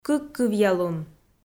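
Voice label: "кыккывъялун", Komi-Zyrian, female; kpv audio dict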